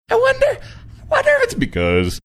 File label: funny